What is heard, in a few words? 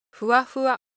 Japanese Texture, Ideo Phones